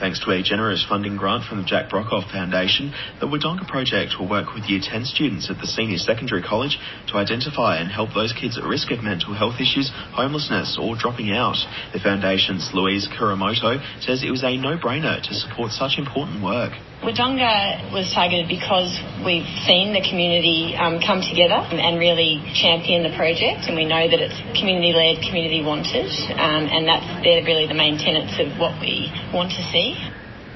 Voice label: news; hitFM; TripleM